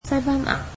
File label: "Spain", Cantonese